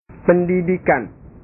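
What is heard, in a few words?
"pendidikan", Indonesian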